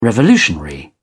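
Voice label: "revolutionary", British English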